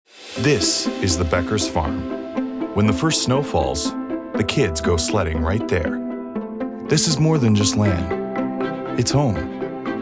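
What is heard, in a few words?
voice over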